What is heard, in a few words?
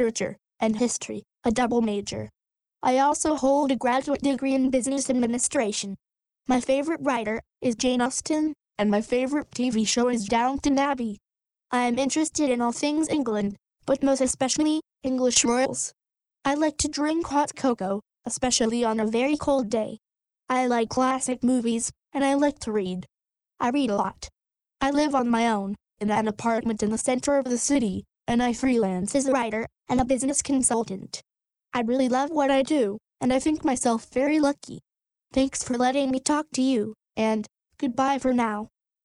Grown Up Ella